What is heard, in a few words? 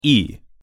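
"и", Russian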